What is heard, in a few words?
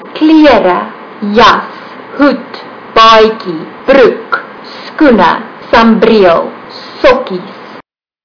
afrikaans clothes